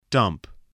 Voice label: "dump", English